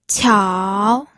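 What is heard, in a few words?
"qiao", Mandarin Chinese, third tone; pinyin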